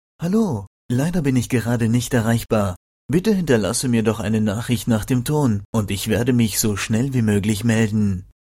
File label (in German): ich ansage, anrufbeantworter